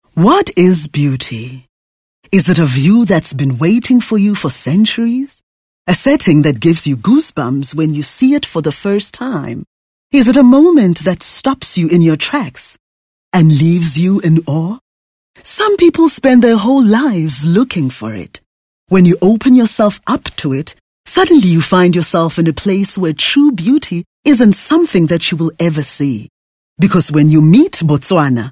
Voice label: English Corporate